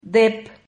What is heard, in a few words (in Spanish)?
"dep", Silabas